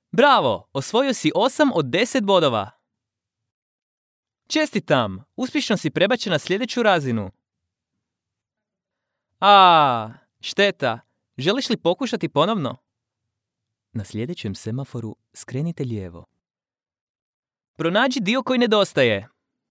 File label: game, croatian